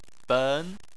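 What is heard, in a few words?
"ben" Chinese, second tone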